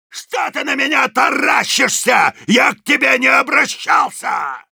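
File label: Soldier taunts, Russian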